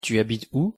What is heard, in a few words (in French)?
tu habites où, pronunciation fr